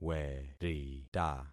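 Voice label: "werita", concatenated syllables; Affixing experiment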